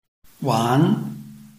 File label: "wan2", Chinese